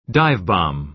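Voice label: "dive-bomb", American English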